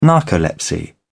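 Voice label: "narcolepsy", British English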